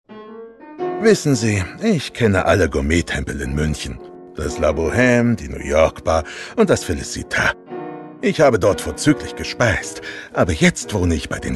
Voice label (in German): Katzenglück Werbung